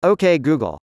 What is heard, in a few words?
"ok g", us male